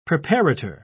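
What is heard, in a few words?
"preparator", British English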